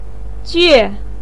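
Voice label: "jue" fourth tone, chinese, voice pinyin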